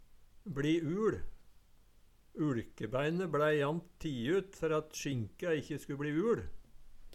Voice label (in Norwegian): "bLi uL", Numedalsmål